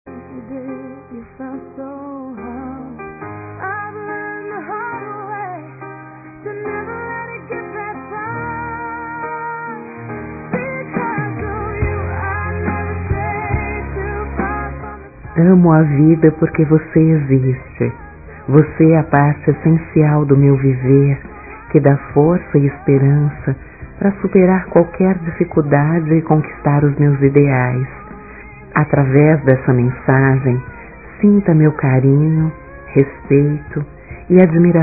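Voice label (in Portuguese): DECLARAÇÃO, female, Dia Dos namorados; Telemensagens 2017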